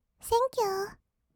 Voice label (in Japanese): "センキュー", kawaii voice; ASMR; 色々なワンショット